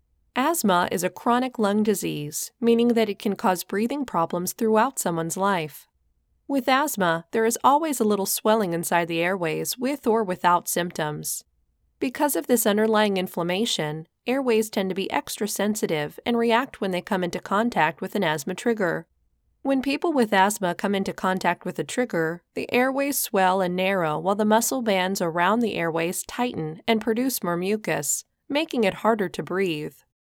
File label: english